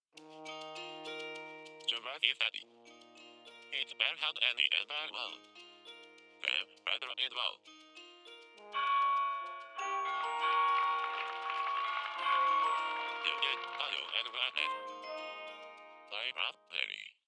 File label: Radio Commercial; Wedding Season